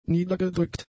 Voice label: "niedergedrückt", German; adjectives

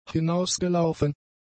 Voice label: "hinausgelaufen", German; adjectives

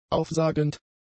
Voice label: "aufsagend", German; adjectives

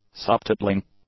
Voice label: "subtitling", English; adjectives

